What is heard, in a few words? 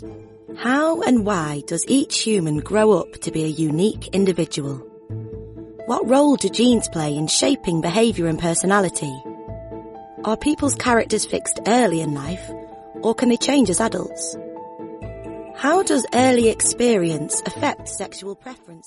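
English Northern female Documentary; voice over; accents of england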